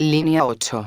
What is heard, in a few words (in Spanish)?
linea 1, megafonias; exterior